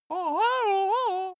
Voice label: cartoon male greet